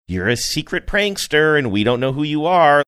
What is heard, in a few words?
saying you're secret prankster sing songy